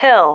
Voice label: "hill", female

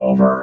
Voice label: "over", robot

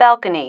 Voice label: "balcony", female

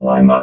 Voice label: "lima", robot

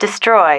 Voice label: "destroy", female

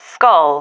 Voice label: "skull", female

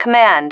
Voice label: "command", female

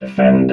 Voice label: "defend", robot vox

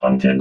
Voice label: "hunted", robot vox

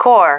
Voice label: "core", female

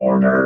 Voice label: "order", robot

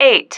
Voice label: "eight", female

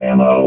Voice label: "ammo", robot vox

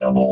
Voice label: "double", robot vox

